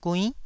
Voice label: "guinn9", taiwanese

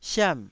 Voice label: "siam4", taiwanese Syllables

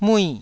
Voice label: "mui4", taiwanese

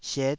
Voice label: "siet5", Taiwanese syllable

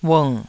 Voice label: "ung3", taiwanese

